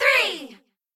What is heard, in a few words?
three girls; cheerReaders